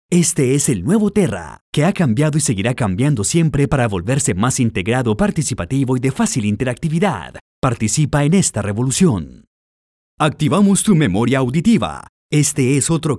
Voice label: spanish colombian promos